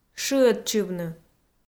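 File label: "шыӧдчывны", Komi, female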